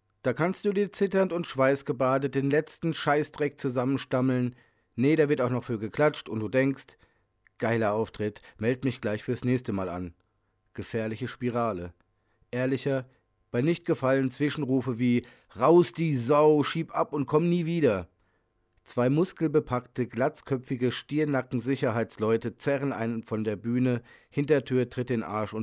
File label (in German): Poetry Slam; Die Wahrheit